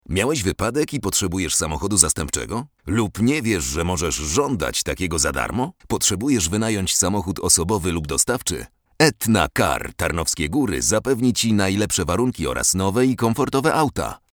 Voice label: polish male